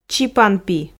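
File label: "чипанпи", Komi, female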